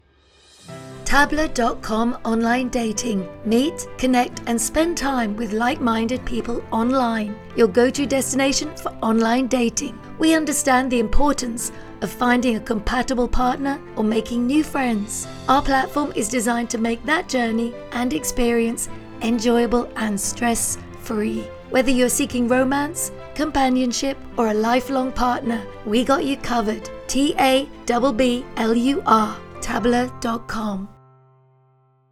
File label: radio ad, music